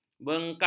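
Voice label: "bengkah", Malay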